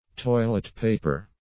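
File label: "toilet paper", English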